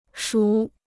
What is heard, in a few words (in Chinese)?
"属", Chinese